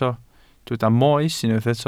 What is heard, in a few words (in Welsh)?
"moes" Welsh